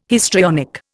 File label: "histrionic", Indian